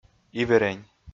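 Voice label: "иверень", Komi-Zyrian, male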